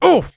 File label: Civilian pain sharp